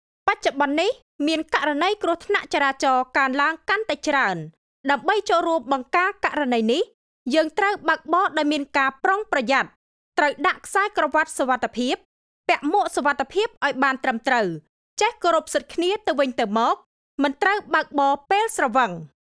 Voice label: IVR; voiceover-cambodia